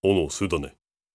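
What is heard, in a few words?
question short, voice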